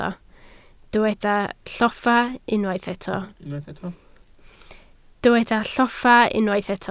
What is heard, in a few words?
"lloffa", Welsh